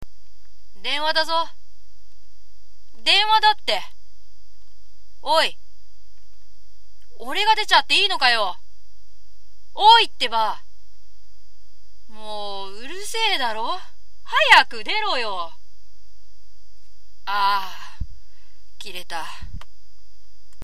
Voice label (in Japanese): denwa syonen